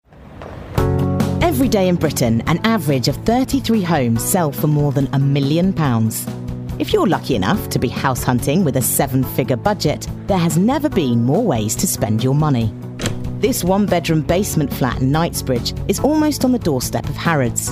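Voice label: English female; lektoring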